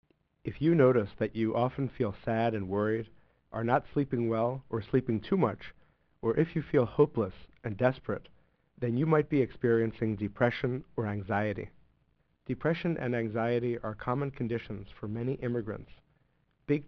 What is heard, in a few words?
Mental Health English, phone